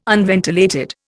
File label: "unventilated", Indian